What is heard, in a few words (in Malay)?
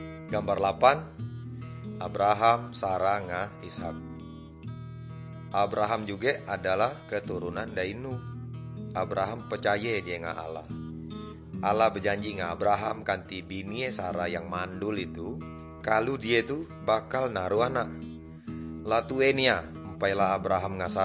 good news melayu tanjung raja